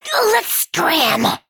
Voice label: Female Imp Attack